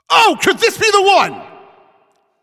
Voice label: Shot On Goal, Announcer